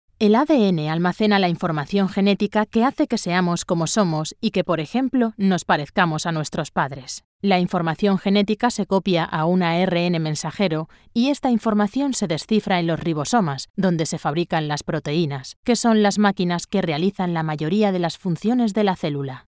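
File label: Spanish, female, corporate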